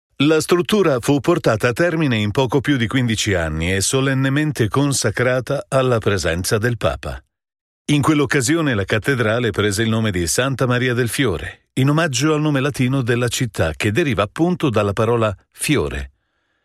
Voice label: Narration